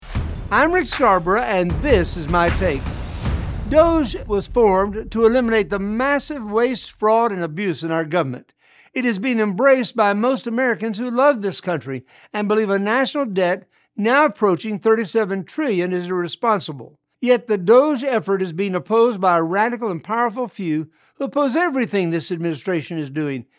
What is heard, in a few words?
radio spot; Rick Scarborough; Mission of DOGE